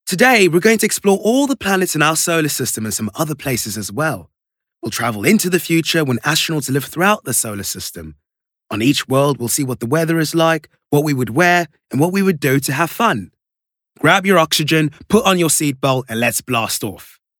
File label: UK Childrens E-learning